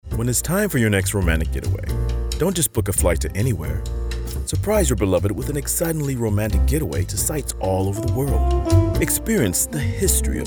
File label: Announcer